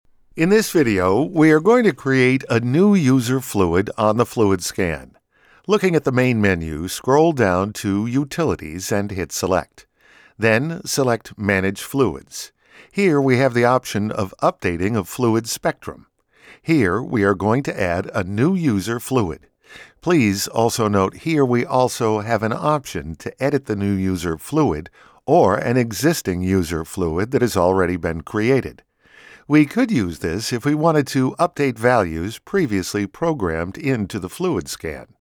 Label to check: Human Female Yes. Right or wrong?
Wrong